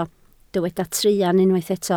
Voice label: "truan", Welsh